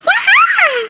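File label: "yaha", baby voice; babypeach; WII; MARIOKARTWII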